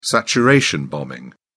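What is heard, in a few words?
"saturation bombing", British English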